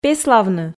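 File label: "пеславны", Komi, female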